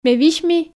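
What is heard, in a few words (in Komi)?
ме висьми, Komi, female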